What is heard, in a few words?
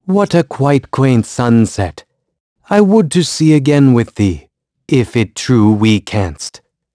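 vox story, voices heroes English; kingsraid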